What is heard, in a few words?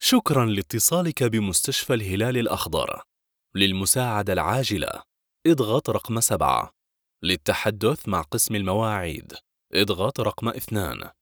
arabic ivr